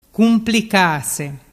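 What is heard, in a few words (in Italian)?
"cumplicase", Vernazzese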